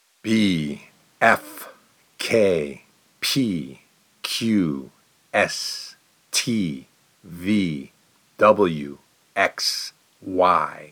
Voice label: Consonants exhaled